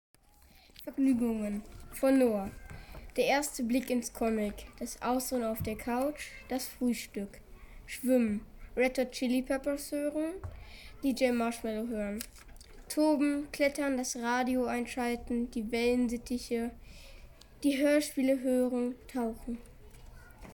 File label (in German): Hörspiele